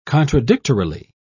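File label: "contradictorily", British English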